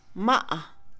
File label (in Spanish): moler, Mixe, Mujer; Comida; INALI